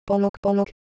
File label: Korean, ideophones; Motion